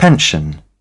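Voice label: "pension", British English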